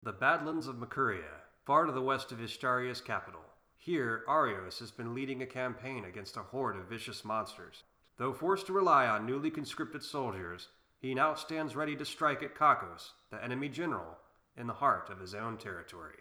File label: Audition - Male Narrator; voice